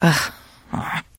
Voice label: trader monolog; 七日杀; 商人杰恩

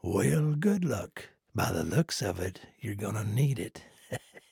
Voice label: trader male dislike quest accepted

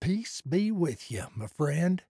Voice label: trader male like sale accepted

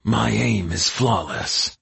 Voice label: dark army archer taunt

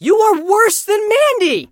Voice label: hurt vo; chester

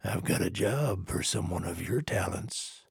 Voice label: trader male like quest offer

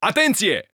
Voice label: Rom idle, 罗马尼亚语; 罗马尼亚语（钢铁雄心4）

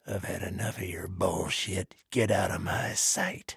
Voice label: trader male dislike quest declined

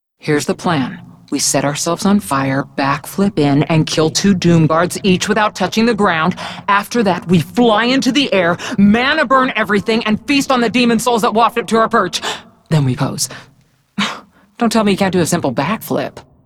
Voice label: Hero female demon hunter pissed

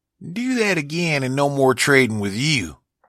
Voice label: trader bark

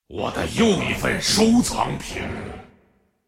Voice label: attack, zh; 雷恩加尔（英雄联盟）; 傲之追猎者

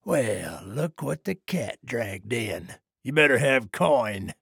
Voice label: trader male neutral greeting

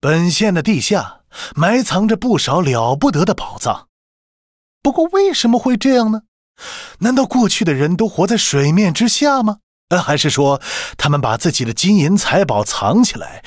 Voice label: Mandarin; 双点校园; 开局播报

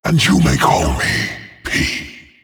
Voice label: Annoying; Paragon

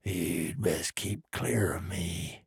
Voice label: trader male dislike greeting

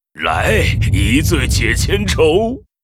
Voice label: Pandaren brewmaster earth pissed

